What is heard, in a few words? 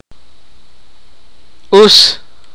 "fly", Sipakapense